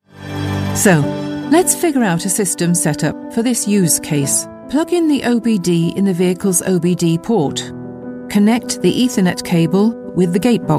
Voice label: explainers